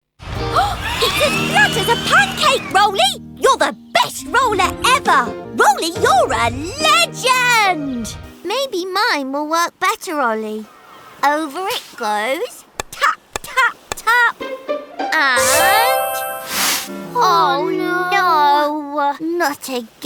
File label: animation